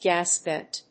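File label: "gas vent", English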